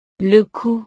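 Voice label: "le cou", French; partes del cuerpo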